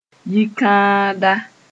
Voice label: "yikãada", Mooré